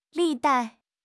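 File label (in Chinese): "历代", Chinese; HSK; HSK6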